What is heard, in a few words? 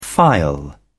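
"phial", British English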